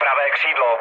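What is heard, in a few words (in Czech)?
Czech right wing, mood low; War Thunder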